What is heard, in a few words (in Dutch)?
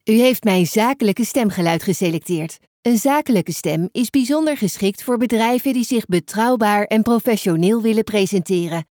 Zakelijk